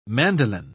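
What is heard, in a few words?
"mandoline", British English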